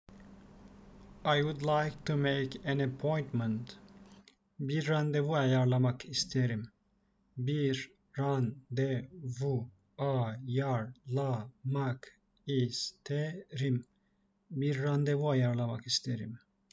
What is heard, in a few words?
i would like to make an appointment, turkish; the talking turkish phrasebook